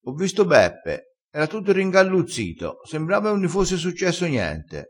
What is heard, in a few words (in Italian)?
"ringalluzzito", crespinese